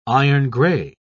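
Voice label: "iron-gray", British English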